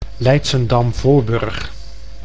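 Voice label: "Leidschendam-Voorburg", Dutch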